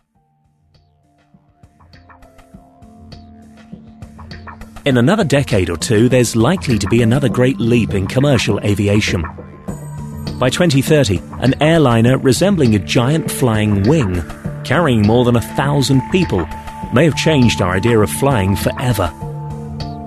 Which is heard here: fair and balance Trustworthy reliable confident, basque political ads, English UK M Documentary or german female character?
English UK M Documentary